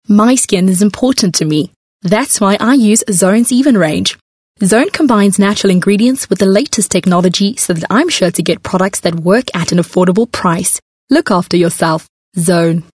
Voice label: English Bright

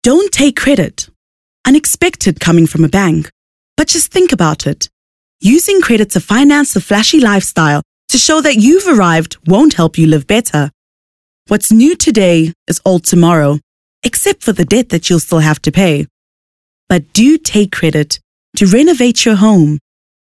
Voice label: English Corporate

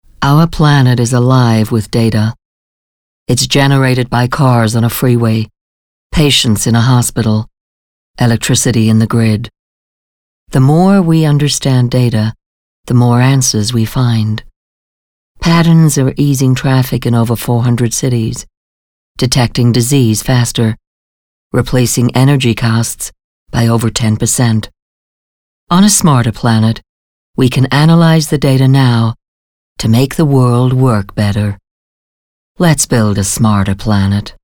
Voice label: English American Accent Coporate

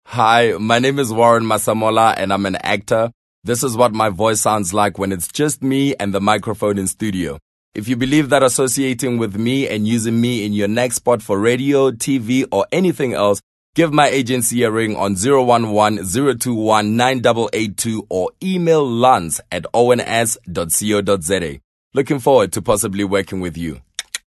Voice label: English conversational

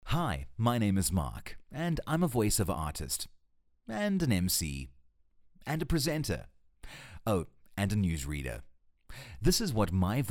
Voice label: English Conversational Real Light Hearted

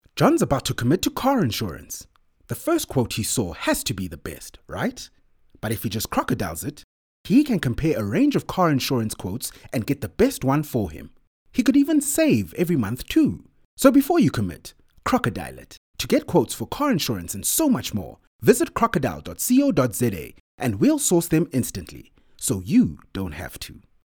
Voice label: English Conversational; OSM Talent

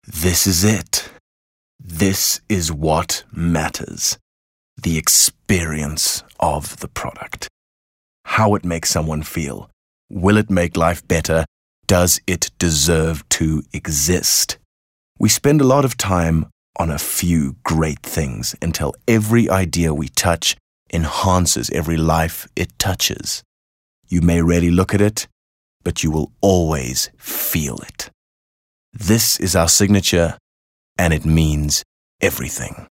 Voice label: English Dramatic

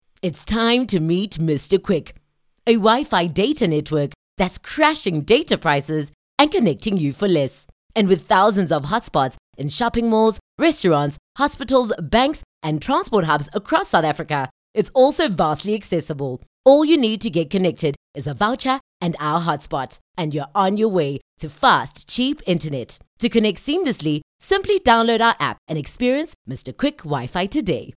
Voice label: English bright; OSM Talent

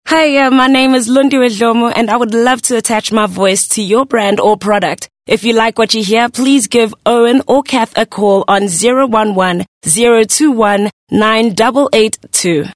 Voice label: English Conversational

